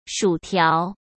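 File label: "薯条", Mandarin Chinese; chinese-flashcards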